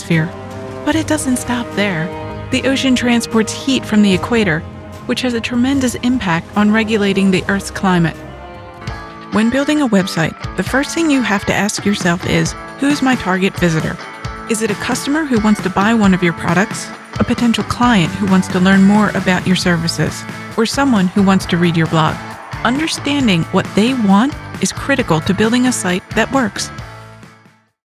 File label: Narration, Female voice over talent